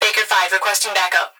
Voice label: Emergency Human Female Voice Police Radio Baker Requesting Back-Up; emergency effects